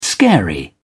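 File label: "scary", British English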